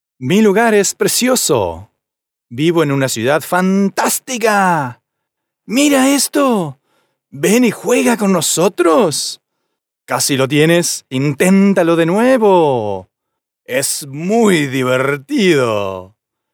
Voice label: male children

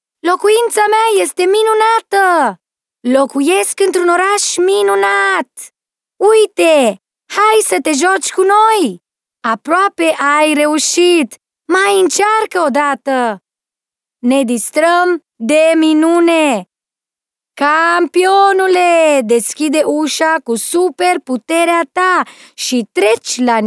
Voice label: RO fem children

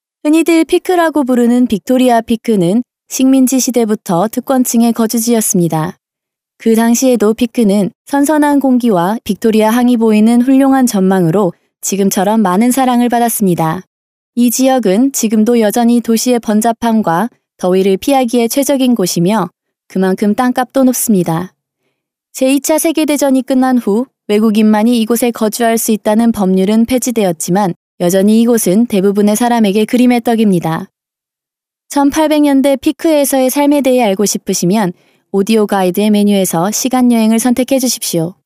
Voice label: Female Korean Other Projects

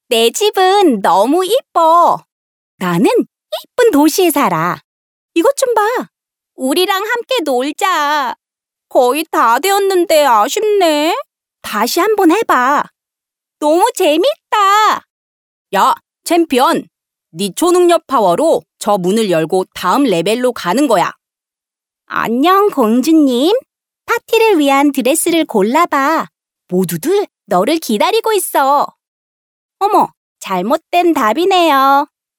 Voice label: Female Korean Toys

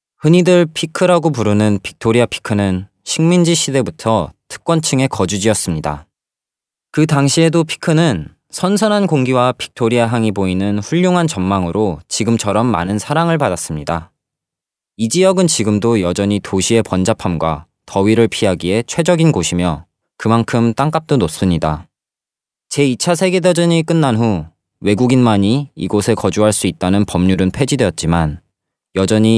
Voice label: Male Korean Other Projects

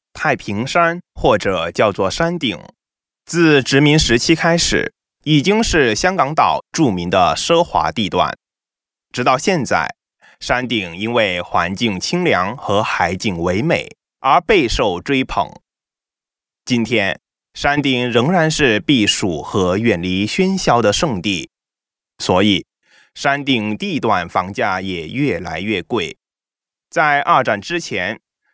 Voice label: Chinese Mandarin Male

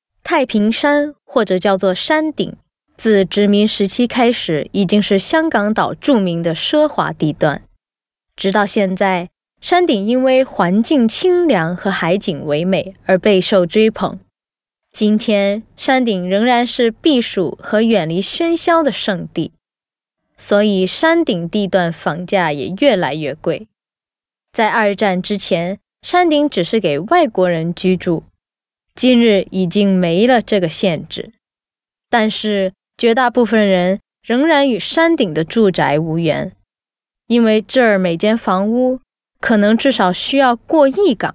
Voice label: Other Projects Chinese Mandarin Female

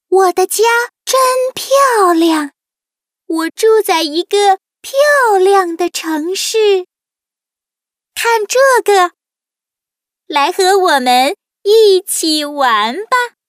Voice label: Toys Chinese Mandarin Female

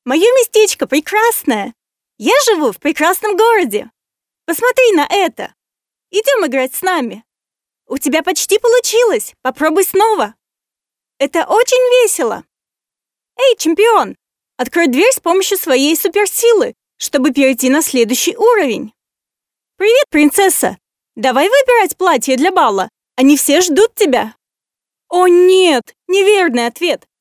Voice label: Female Russian Toys

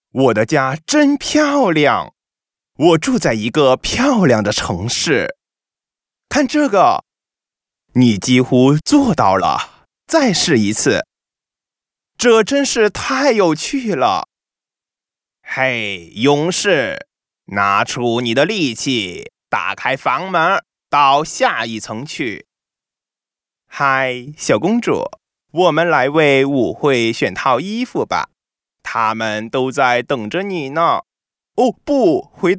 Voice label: Toys Chinese Mandarin Male

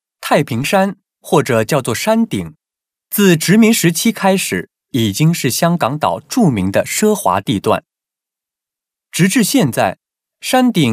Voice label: Other Projects Chinese Mandarin Male

